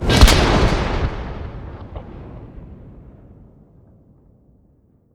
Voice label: shout unrelenting force